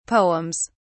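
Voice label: "poems", British English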